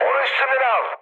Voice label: aircraft damaged mood high, speech; War Thunder